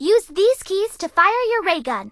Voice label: English Voice

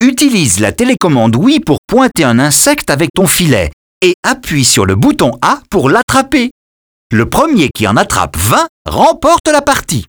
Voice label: bugcatcher vo, French

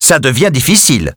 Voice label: Hat Mix Expressions, French

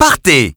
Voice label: "go", French; Birthday Party Bash; Wii

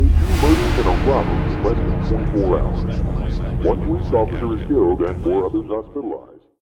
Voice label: News; lucius ii the prophecy

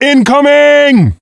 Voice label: "Incoming", Male; blastmorph pinball; xbox series xs

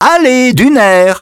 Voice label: French, dialogue, rockclimber

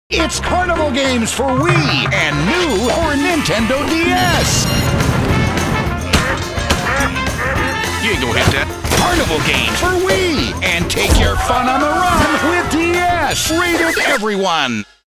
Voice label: French - trailer audio, carnival trailer

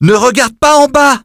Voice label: French - dialogue, Rock Climber Expressions

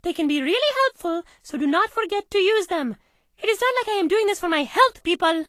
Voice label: English Voice

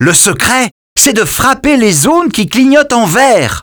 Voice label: pinata vo, French; Birthday Party Bash; Wii

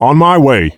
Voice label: Male On My Way; blastmorph pinball; xbox series xs

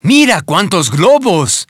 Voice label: Spanish - dialogue, reveal

